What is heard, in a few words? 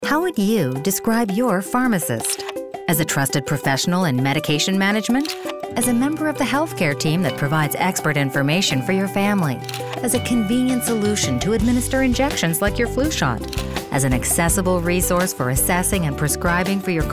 radio ad